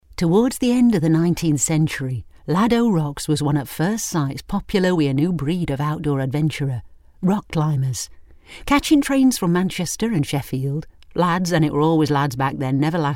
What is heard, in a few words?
lancashire